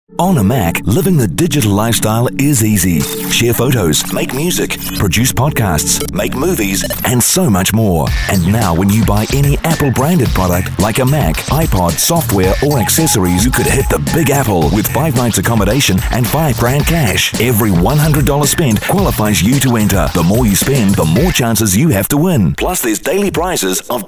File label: New Zealand